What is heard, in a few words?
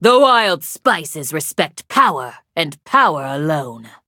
touch, en; cookie run